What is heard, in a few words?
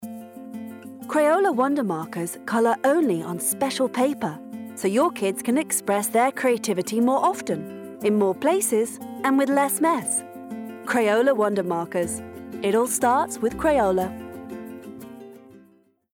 VO Reel; Crayola